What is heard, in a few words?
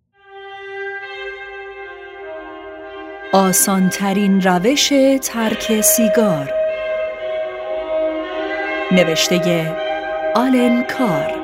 announce